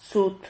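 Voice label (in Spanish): "zut", Spanish syllable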